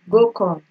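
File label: "go come", Nigerian Pidgin, female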